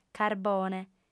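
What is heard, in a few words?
"carbone", female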